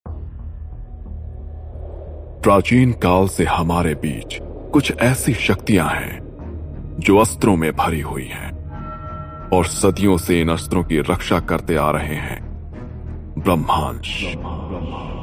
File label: Movie trailer VO; Film Tailor - Brahmastra